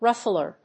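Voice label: "Ruffler", English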